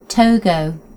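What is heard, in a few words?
"Togo", British English; gcompris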